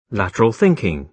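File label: "lateral thinking", British English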